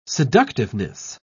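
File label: "seductiveness", British English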